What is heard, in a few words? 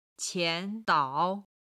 "qian2 dao3", Chinese